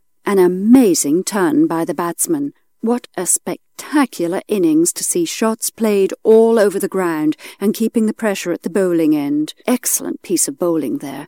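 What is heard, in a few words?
English British female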